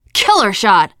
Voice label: nice shot, teen girl; player; survivor; voice

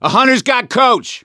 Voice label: hunter pounced, survivor voice; coach; Left 4 Dead 2; gambler

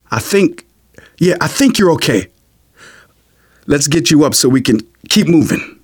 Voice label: revive friend, survivor voice manager

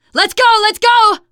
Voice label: emphatic go, teen girl

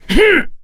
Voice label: melee swing, mechanic, survivor, voice

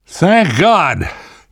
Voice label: safe spot ahead reaction, namvet, survivor voice; Left 4 Dead 2; left4dead2 dlc3

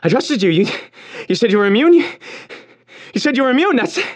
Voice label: radio initial broadcast; Left 4 Dead 2; left4dead2 dlc3; churchguy; npc

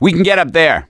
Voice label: misc directional, voice; gambler

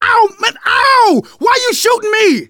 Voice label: friendly fire, manager, survivor, voice; Left 4 Dead 2; left4dead2 dlc3

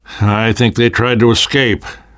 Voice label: world hospital, namvet, survivor voice

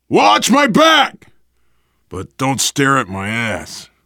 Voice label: cover me, voice, biker

